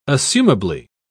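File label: "assumably", British English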